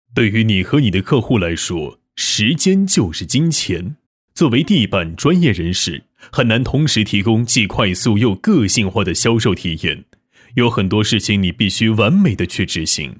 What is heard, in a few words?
Chinese, Male